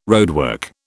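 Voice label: "roadwork", British English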